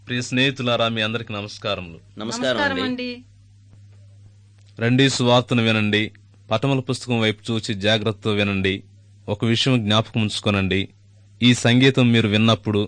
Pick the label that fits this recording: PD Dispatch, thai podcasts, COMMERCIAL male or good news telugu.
good news telugu